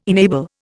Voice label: "enable", Indian